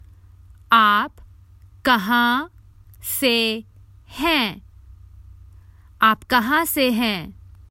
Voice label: Where are you from, Common Hindi Phrases for travel